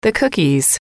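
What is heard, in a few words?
"the cookies", English, female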